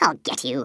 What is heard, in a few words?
"I'll get you", Stiff Upper Lip